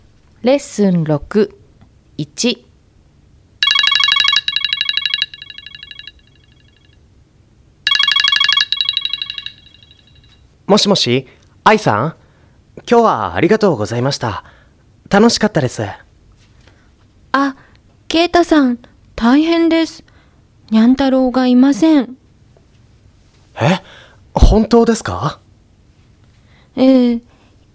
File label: Conversation; tobira